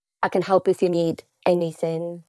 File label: Voice Acting, es